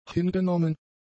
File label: "hingenommen", German; adjectives